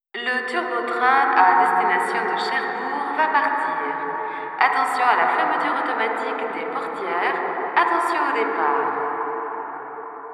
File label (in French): voix de l', speakerphone; audio ease speakerphone